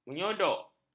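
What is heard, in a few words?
"menyodok", Malay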